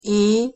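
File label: "e hosszu", Hungarian pronunciation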